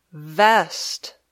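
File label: "vest", English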